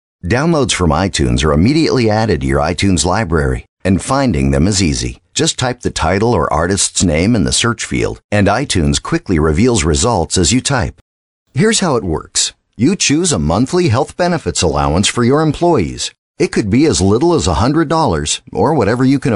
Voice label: How to, very compressed